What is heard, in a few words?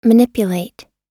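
"manipulate", English; oxford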